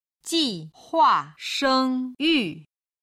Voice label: "ji hua sheng yu", Chinese